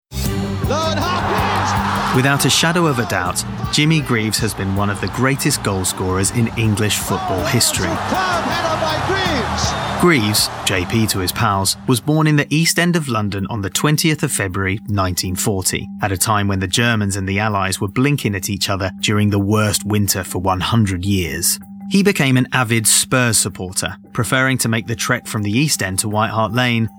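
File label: Documentary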